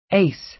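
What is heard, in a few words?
"ace", American English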